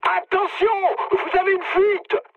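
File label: damaged water, mood high, French; War Thunder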